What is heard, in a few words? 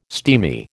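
"steamy", American English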